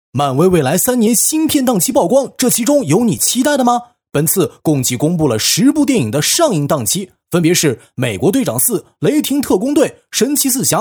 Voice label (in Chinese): 男 独白; 漫威未来三年新片